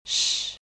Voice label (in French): Consonnes ch; ʃ; fricative sourde